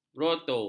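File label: "groto", Malay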